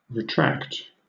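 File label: "retract", British English; pronunciations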